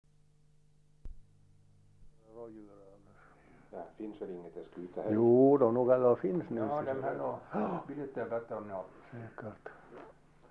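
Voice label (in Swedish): Boda Dalarna, dialekter; Folkminnen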